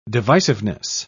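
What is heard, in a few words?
"divisiveness", British English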